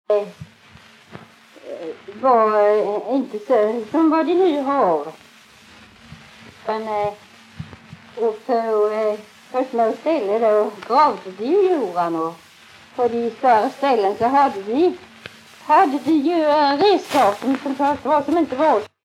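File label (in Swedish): Tving Blekinge, dialekter svenska sagor; Folkminnen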